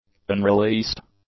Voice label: "unreleased", english; adjectives